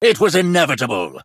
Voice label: Male High Elf Attack